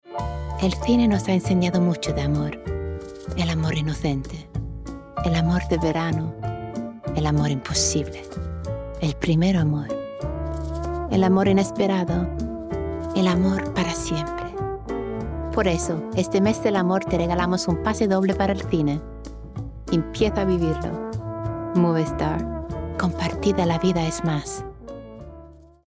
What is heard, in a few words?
Spanish; Spanish Language; Movistar